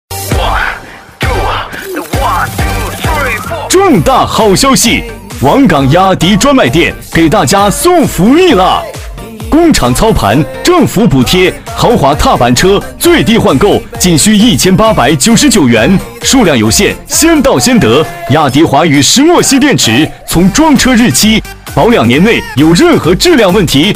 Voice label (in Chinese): 激情促销男; 工厂补贴欢乐购; 雅迪